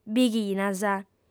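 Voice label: "bighinas", female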